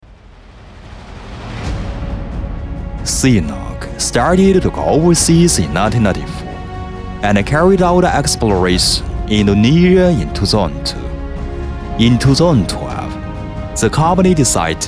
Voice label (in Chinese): W男 英语企业宣传片 大气浑厚; 中国海油